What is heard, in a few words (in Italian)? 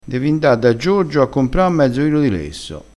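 "lesso", crespinese dialettale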